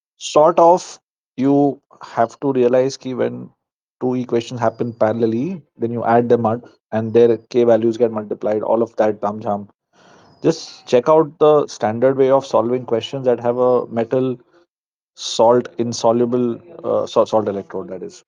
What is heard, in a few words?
voice message